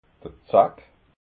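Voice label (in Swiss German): "zack", Alsatian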